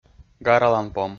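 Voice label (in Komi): "гараланпом", Komi, male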